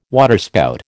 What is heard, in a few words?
"waterspout", American